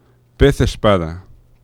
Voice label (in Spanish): "pez espada", fricatives; laboratori fonetica